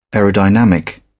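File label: "aerodynamic", British English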